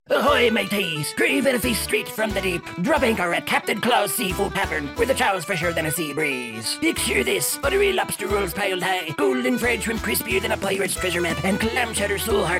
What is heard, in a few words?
Pirate Commercial